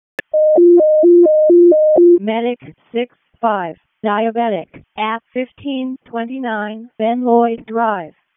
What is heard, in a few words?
WC EMS ALERT, p25; wake